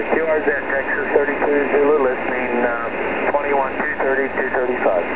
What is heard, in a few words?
21 ssb